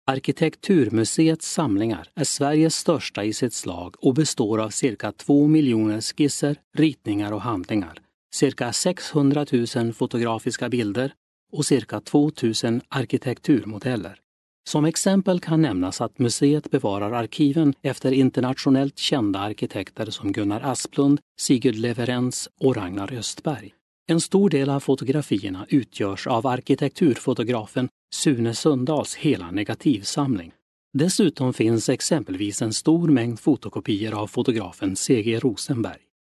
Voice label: swedish m